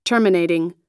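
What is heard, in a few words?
"terminating", US English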